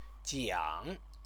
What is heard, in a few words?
"jiang", Chinese